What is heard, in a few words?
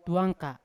"tuanka" small little, Yawa